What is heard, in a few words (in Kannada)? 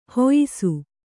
"ಹುಯ್ಸು", kannada